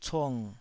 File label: "cung3", taiwanese